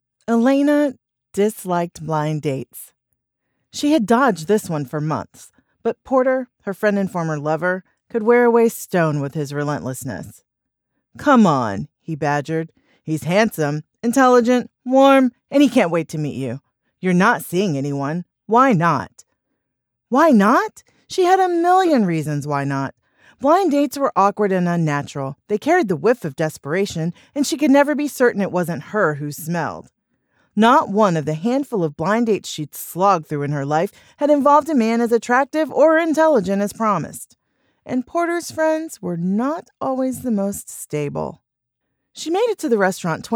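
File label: Contemporary Romance Male-Female Banter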